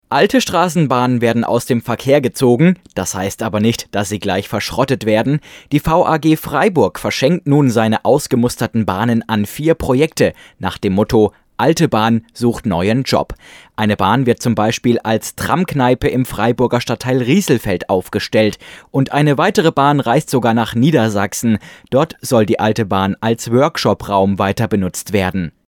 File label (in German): Neutral Beispiel News Moderation Infotainment Newstalk